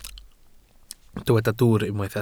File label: "dwwr", Welsh